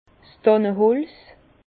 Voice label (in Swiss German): "danneholz", Bas Rhin; vin; sapin